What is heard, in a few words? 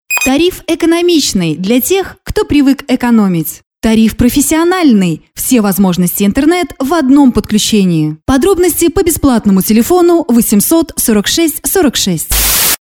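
russian female commercial; voice demos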